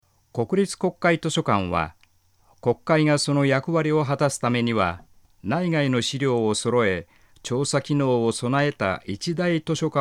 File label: male; 朗読歴40年余り。